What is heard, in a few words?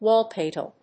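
"wallplate", English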